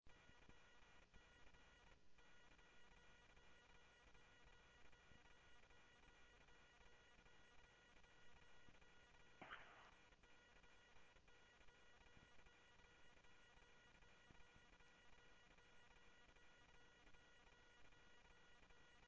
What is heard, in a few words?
Police, scanner audio trunk; H-Scottsdale